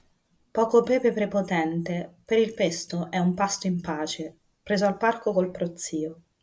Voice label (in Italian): plosive notebook